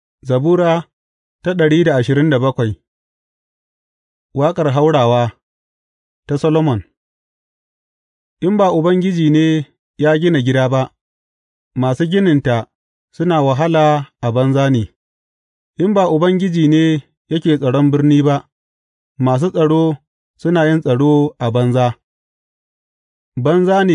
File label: hausa bible drama, psalms; hausa bsn nt drama